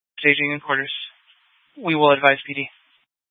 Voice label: Fire Dispatch; K8 - FDCOM 8 - South; C- Chandler

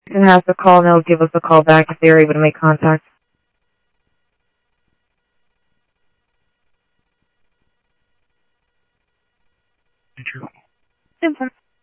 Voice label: Sheriff, scanner audio; MCSO - Info Sheriff - Common; South Scottsdale

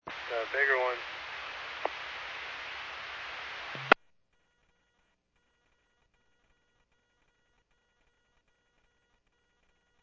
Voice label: Medevac, scanner; NativeAirAmb

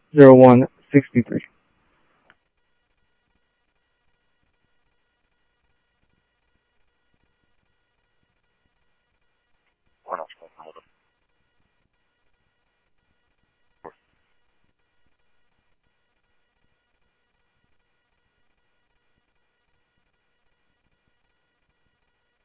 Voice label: Info Sheriff Common, scanner audio trunk; MCSO; ThompsonPeakScot

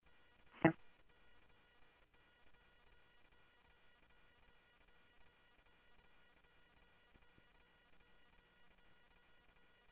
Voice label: Police, scanner audio trunk; H-Scottsdale

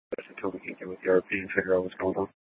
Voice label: Dispatch; Scottsdale

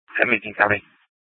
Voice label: Mesa PD Fiesta Dispatch; Mesa Simulcast

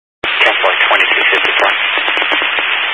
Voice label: Highway Patrol, scanner

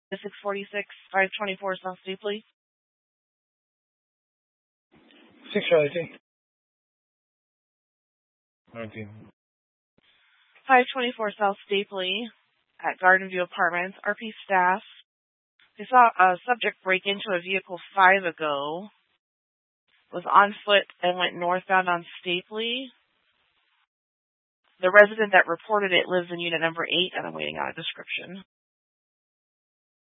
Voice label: Mesa PD Dispatch

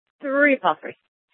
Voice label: Police Department Dispatch; Apache Junction; Mesa Simulcast

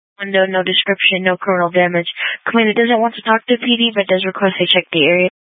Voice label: Police Dispatch

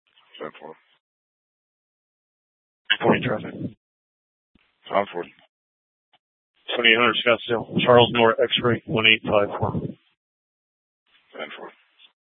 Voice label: Police South Dispatch

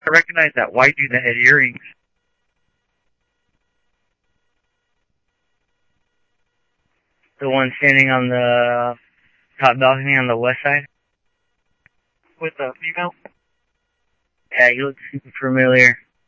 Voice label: scanner audio; Thompson Peak Hi; OCEAN 1

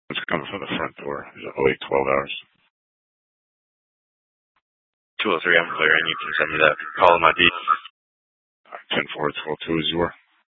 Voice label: PD Dispatch

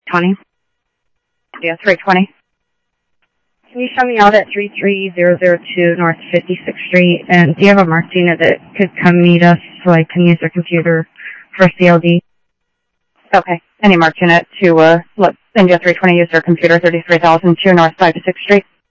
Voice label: Phoenix PD, scanner audio; PHOENIX PD-200 A; ThompsonPeakHigh

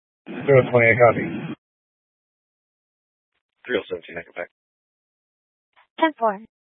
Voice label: Scottsdale Police, Simulcast

